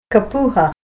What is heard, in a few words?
"slowpoke", Ninilchik Russian